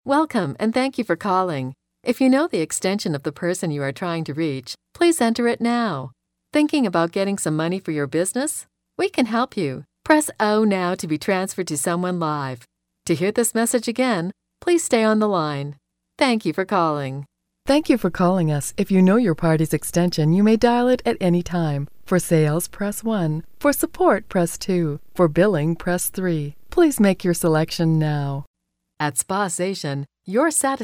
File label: On Hold Voicemail